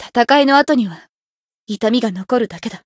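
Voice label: win, Japanese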